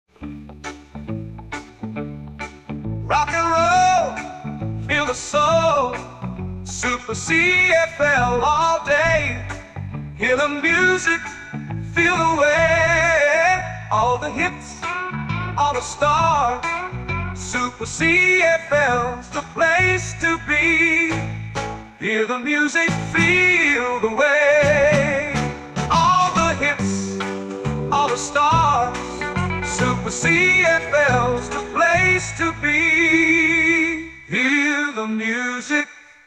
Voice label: 60's Radio; Super CFL